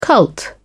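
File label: "cult", British English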